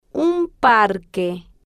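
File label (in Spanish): un parque, Spanish, female, slow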